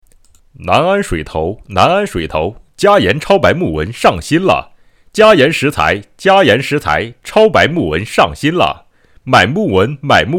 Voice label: 广告

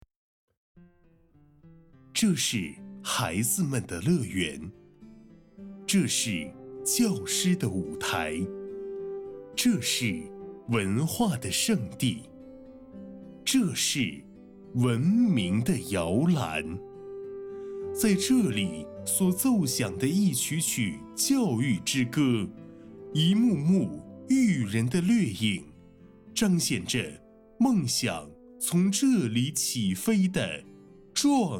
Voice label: 年轻大气学校宣传样

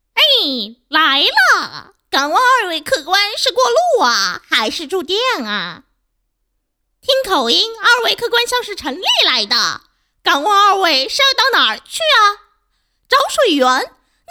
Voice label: 夸张

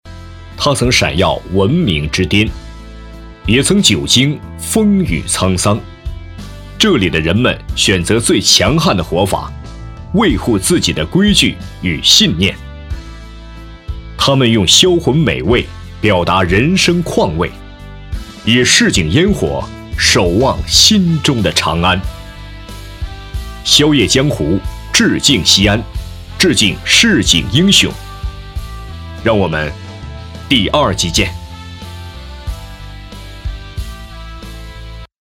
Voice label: 美食宵夜江湖模仿

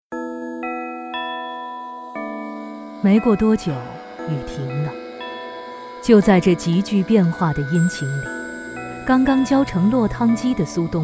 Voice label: 纪录片; 苏东坡

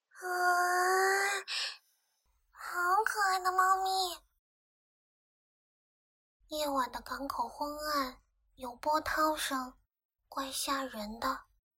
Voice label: 少女音

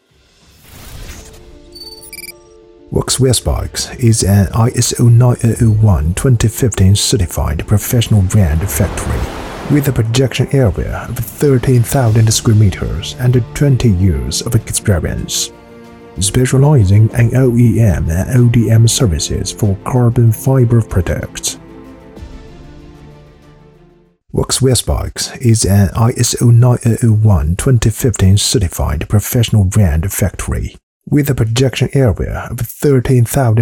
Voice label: 英文专题 低沉磁性 公司宣传 男

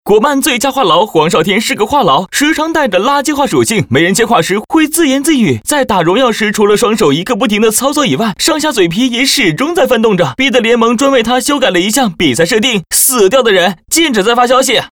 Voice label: 恶搞吐槽

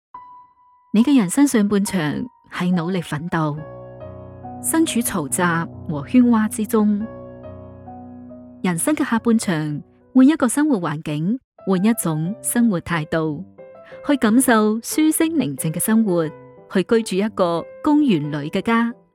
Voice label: 粤语 知性 旁白

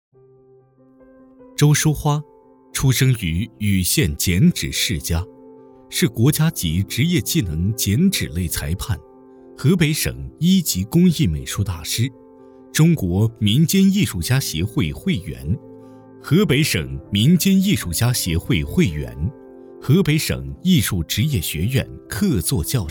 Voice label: 纪录片 青年（18-35）

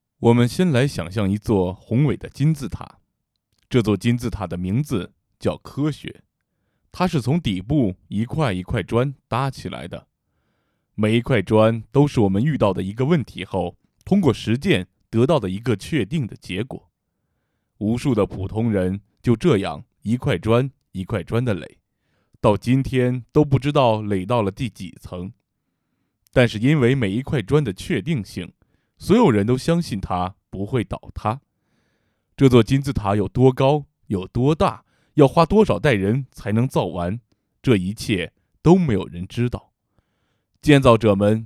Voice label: 历史专题片

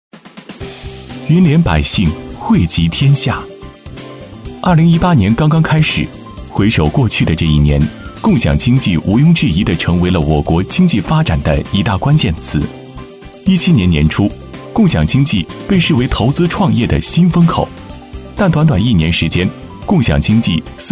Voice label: 科技感; 银联

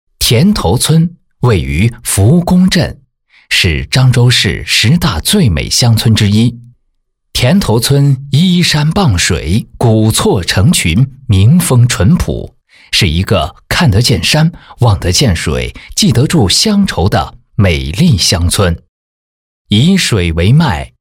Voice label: 解说; 田头村

